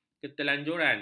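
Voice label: "keterlanjuran", Malay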